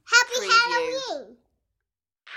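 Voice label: Kid Says Happy Halloween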